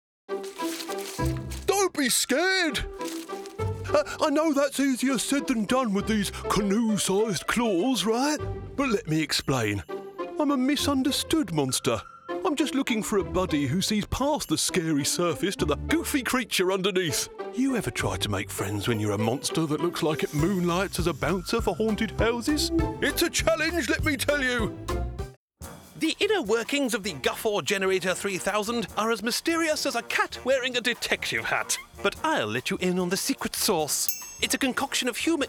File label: animation; VOICFOX